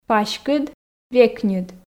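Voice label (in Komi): паськыд векньыд, Komi, female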